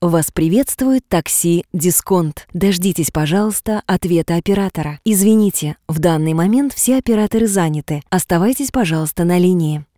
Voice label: Rus